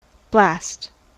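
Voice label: "blast", US English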